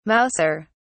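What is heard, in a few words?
"mauser", British English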